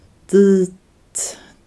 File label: "there" damped